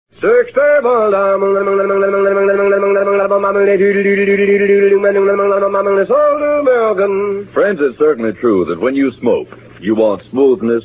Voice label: Vintage Commercials; Lucky Strike Cigarettes